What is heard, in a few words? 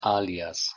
"aljas", Proto-Germanic